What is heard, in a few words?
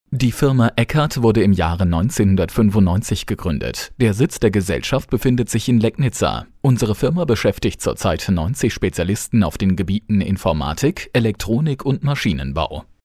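german male narration; voice demos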